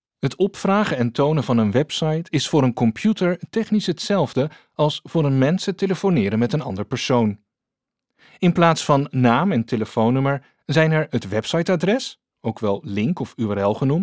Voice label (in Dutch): Voice over informatief